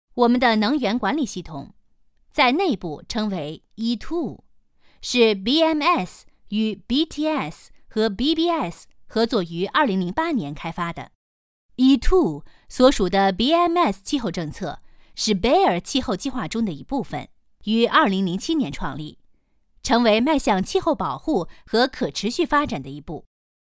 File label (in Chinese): chinese voiceover locutora china mandarina